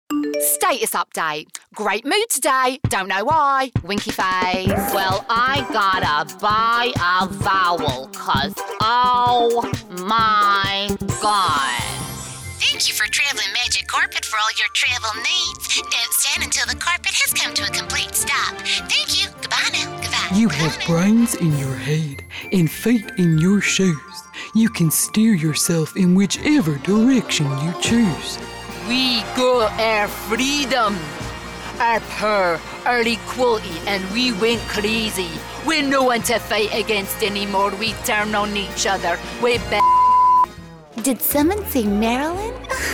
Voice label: Character